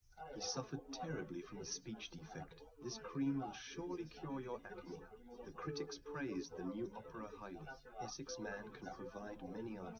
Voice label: male, live rec; SiSEC08; SiSEC underdetermined